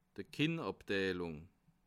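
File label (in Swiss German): "kinderabteilung", Bas Rhin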